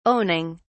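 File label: "owning", British English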